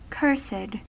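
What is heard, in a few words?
"cursed", US English; pronunciations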